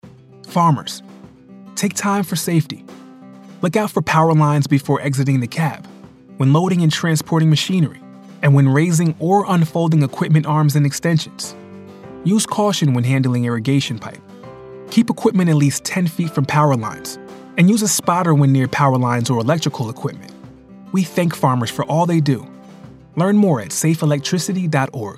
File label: Spot w music male voice